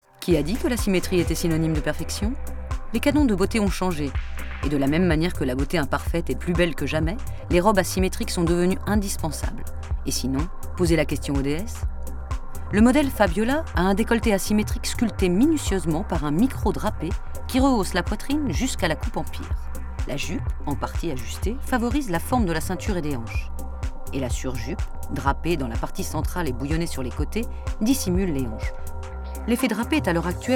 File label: Institutionel, FRENCH, FEMALE; institutional